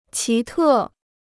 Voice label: "奇特", Chinese

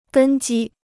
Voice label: "根基", Chinese

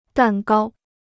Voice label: "蛋糕", Chinese

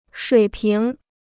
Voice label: "水平", Chinese

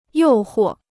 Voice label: "诱惑", Chinese

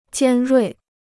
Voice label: "尖锐", Chinese

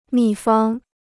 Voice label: "秘方", Chinese

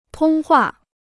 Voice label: "通话", Chinese

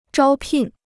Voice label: "招聘", Chinese

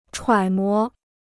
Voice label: "揣摩", Chinese

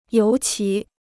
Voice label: "尤其", Chinese

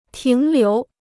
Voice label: "停留", Chinese

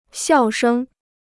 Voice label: "笑声", Chinese; xiào shēng; laughter

